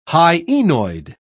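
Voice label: "hyenoid", British English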